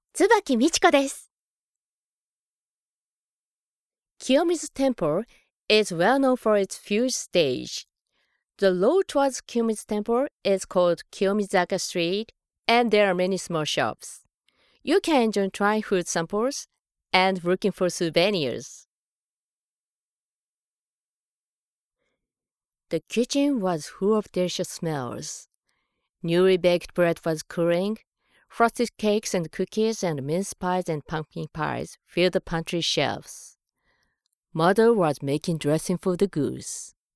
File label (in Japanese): 英語サンプル